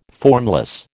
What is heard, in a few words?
"formless", American